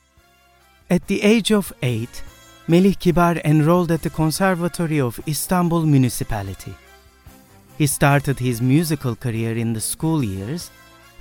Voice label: english corporate videos

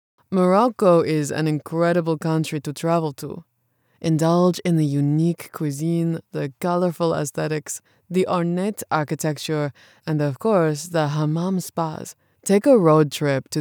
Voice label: english international announcements

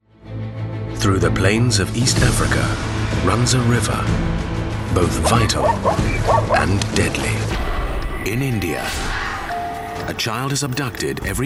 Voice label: english british narration

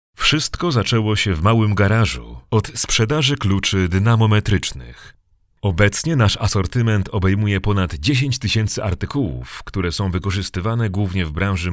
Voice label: polish explainer videos; professioneller polnischer Sprecher mit langjähriger Erfahrung in landesweiten Medien

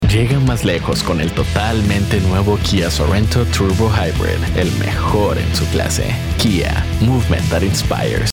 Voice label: spanish latin american automotive

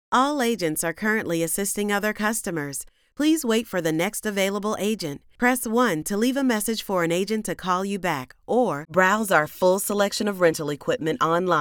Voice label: english american ivr

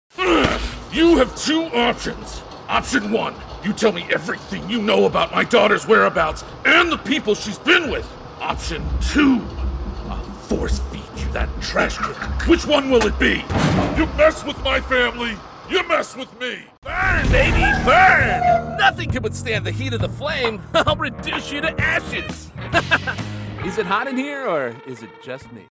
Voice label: english american video games

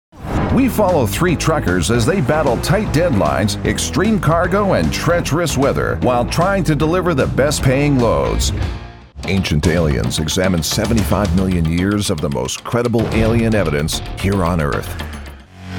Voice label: english canadian promos; voice actors

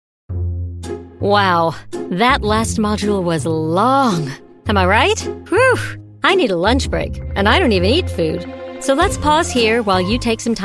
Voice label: english american e-learning

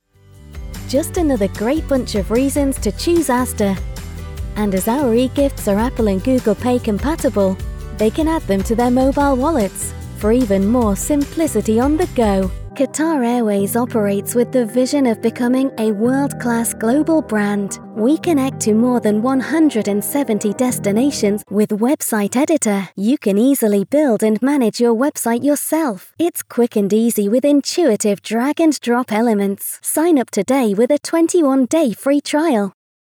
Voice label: english british corporate videos

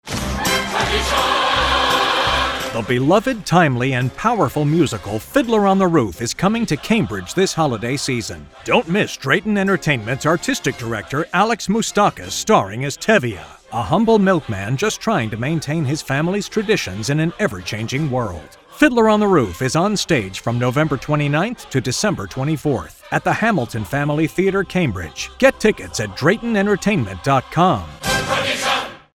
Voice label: english american promos; American voice actor

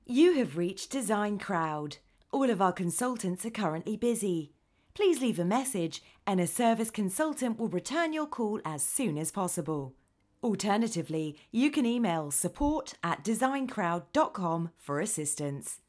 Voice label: english british ivr

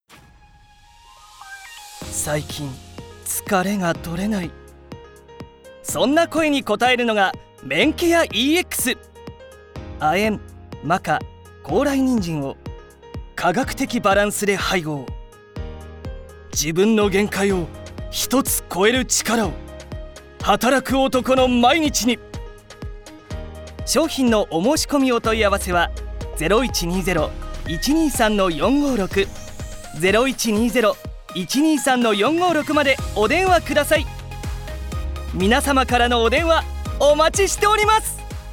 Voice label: japanese commercial

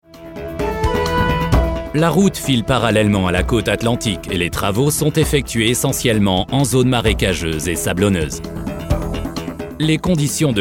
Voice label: french documentaries

